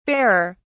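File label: "barer", British English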